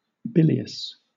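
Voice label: "bilious", British English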